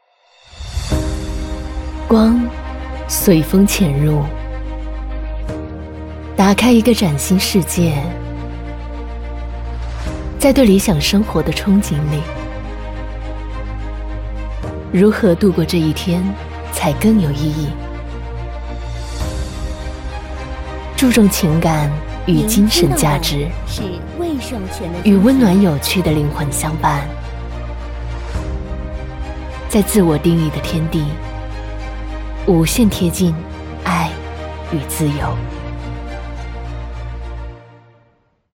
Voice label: 女 宣传 温柔大气 独白; 大宅篇

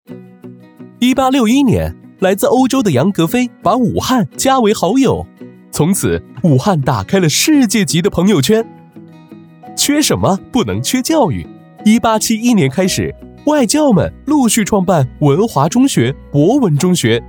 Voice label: 男 轻松; MG百年武汉

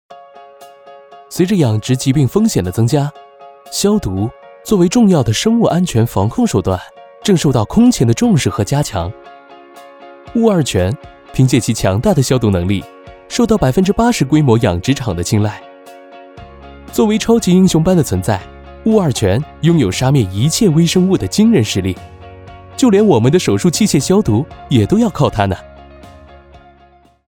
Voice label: 男 科普

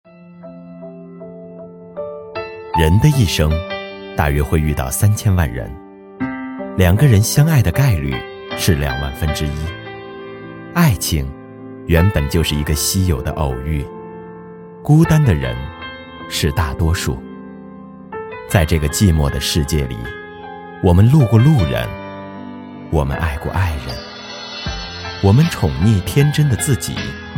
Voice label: 男 独白 讲述 内心 成熟

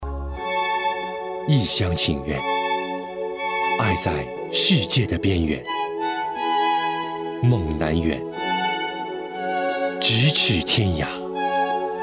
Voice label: 男

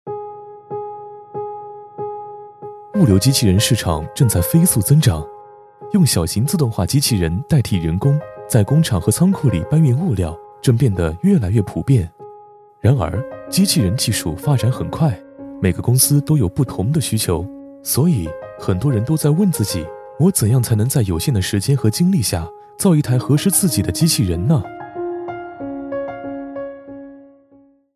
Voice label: 男 产品介绍 讲述 自然 机器 IT科技感

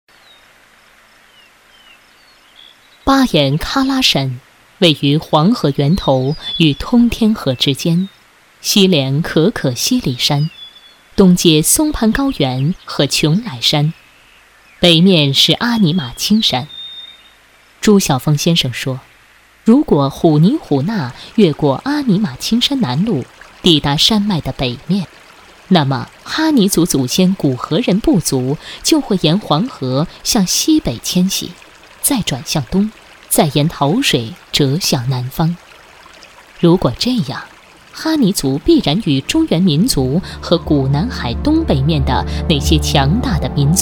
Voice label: 女 纪录片; 哈尼族

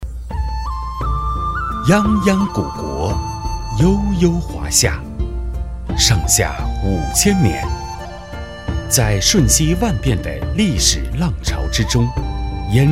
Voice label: 男 专题; 清史文化演绎中心

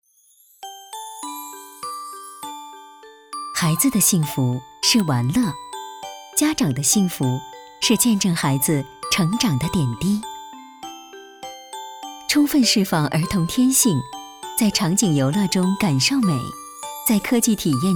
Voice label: 女 宣传片 温婉大气 儿童教育品牌

